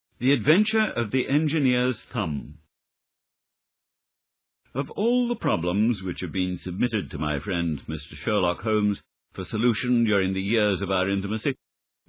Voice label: audiobook; the adventure of the engineers